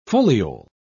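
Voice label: "foliole", British English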